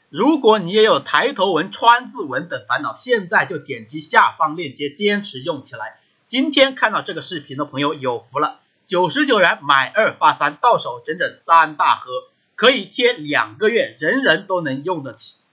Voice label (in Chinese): 直播带货